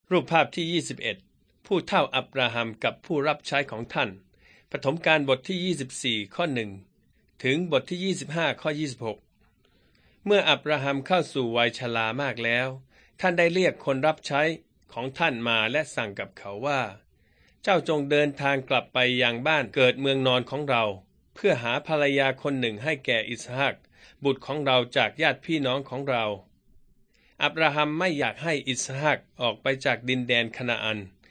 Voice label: beginning with god thai central